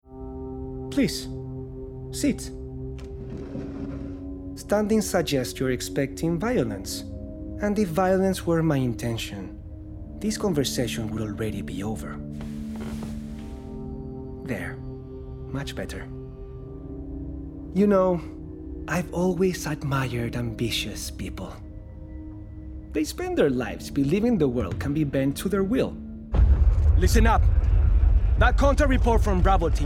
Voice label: Accented English

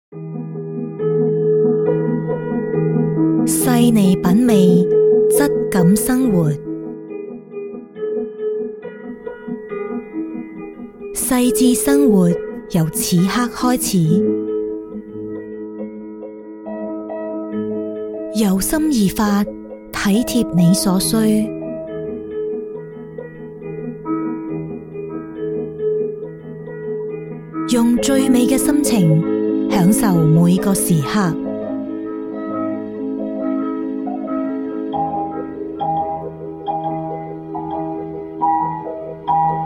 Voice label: Documentary, YUE; GoLocalise

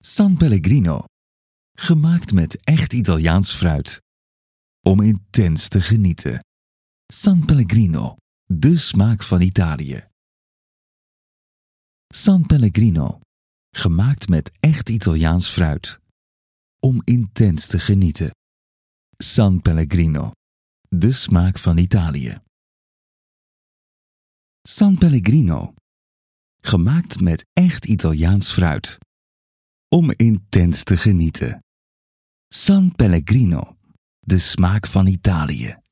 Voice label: Television; voice demos; GoLocalise

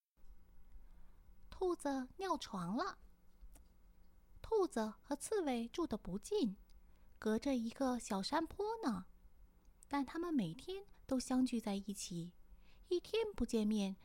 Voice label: Narration, ZH; voice demos; GoLocalise

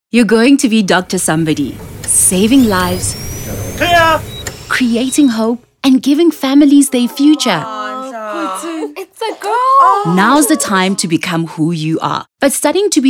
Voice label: AccEN, TN; GoLocalise

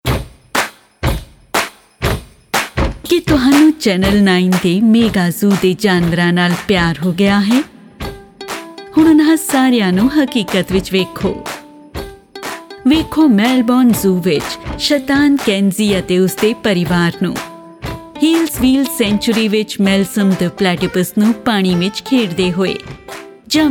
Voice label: Advertising; GoLocalise

